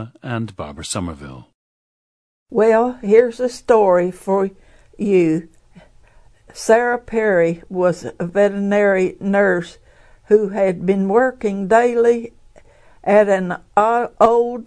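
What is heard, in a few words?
west virginia, International Dialects of English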